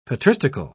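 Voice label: "patristical", British English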